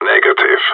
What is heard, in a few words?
negative, radio; GSG9